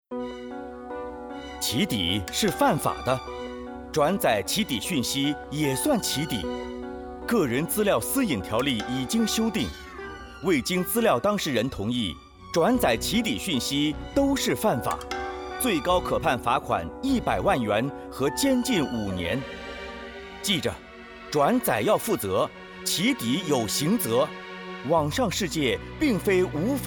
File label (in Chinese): radio, 电台宣传声带; doxxing; 《2021年个人资料（私隐）（修订）条例》现已生效，以加强打击侵犯个人资料私隐的「起底」行为，「起底」最高可被判罚款100万元及监禁5年。